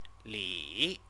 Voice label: "li", Chinese; CTCFL; University of Oxford